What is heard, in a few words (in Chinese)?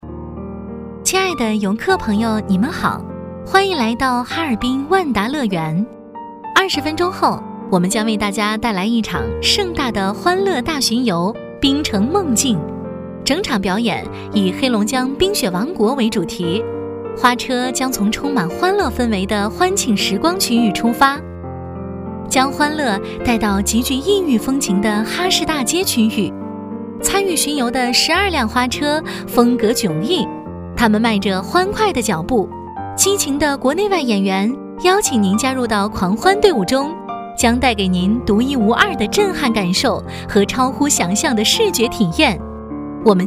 女 专题 广播 轻松甜